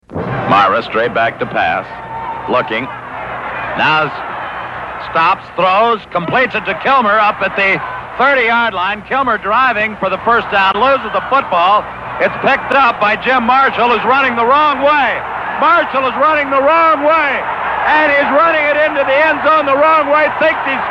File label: Radio Calls; 49ers